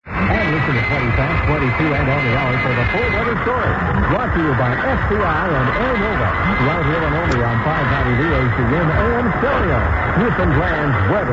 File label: old dx rec from uk with mention of am stereo; vocm